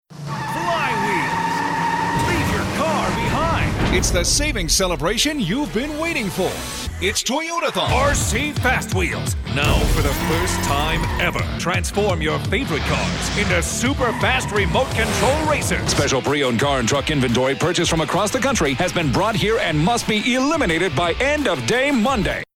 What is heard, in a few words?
high energy